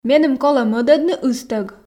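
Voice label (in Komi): меным колӧ мӧдӧдны ыстӧг, Komi, female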